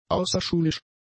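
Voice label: "außerschulisch", German; adjectives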